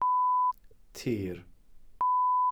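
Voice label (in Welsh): "tir", Welsh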